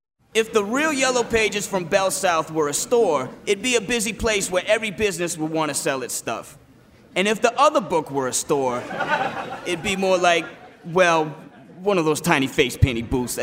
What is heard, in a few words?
attitude